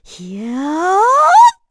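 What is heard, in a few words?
Vox Casting, Korean; heroes; Erze; kingsraid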